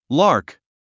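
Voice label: "lark", English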